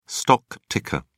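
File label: "stock ticker", English, British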